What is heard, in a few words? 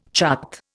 "chart", Indian